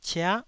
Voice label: "cia9", taiwanese